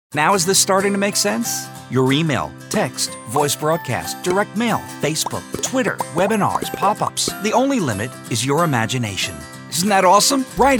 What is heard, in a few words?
Explainer Video, commercial voiceover